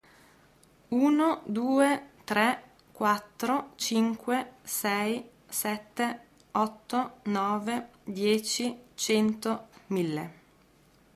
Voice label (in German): Italienisch